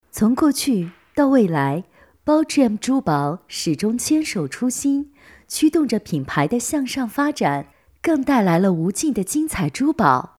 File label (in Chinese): 珠宝广告大气